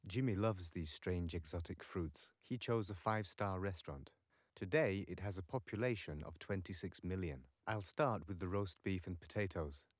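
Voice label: male, live recording, 250 ms, source; SiSEC11; underdetermined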